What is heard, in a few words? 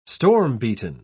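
"storm-beaten", English, British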